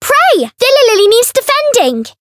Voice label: Voice encount fear